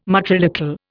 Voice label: "matrilocal", Indian

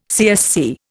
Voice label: "CSC", Indian

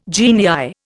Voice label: "genii", Indian

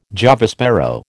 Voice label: "Java sparrow", American

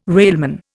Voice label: "railman", Indian

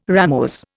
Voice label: "ramose", Indian

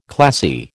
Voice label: "classy", American

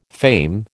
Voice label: "fame", American

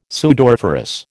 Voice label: "sudoriferous", American

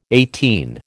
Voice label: "eighteen", American

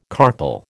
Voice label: "carpal", American

